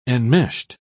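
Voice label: "enmeshed", British English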